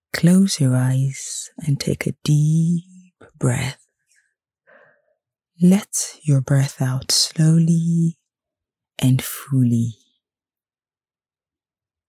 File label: Meditation